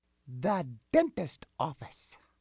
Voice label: "dentist office", English

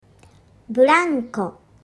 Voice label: swing, Japanese

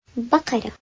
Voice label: COW, Arabic

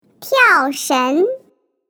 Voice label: MAN jump rope, Chinese

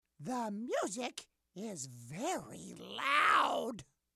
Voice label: "music is loud", English

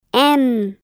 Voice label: GERMAN LETTER M

